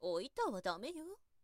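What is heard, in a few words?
woman, "oitahadameyo"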